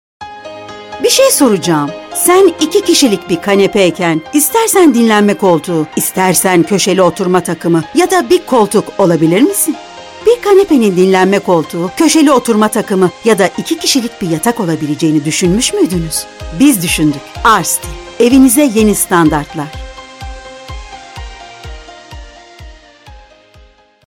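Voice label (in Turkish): reklam, Turkish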